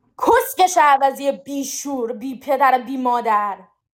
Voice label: persian words

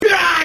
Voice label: hurt; shadow dio

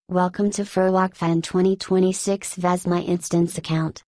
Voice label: announcement; gladoss

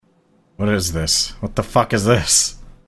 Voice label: old confused

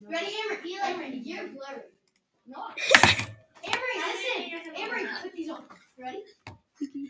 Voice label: girls talk

